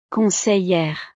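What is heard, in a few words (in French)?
"conseillere", French; profesiones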